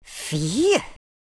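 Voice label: "relief", male villain voice; speech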